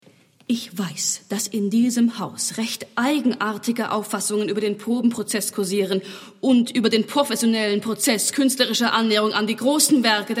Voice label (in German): Hörspiel; Diva